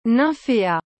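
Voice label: "nymphea", French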